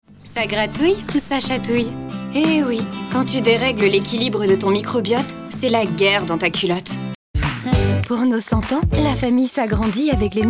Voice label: COMMERCIALS HAPPY